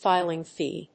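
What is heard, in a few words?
"filing fee", English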